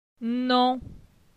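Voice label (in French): "Non", French; phonetique; la syllabe on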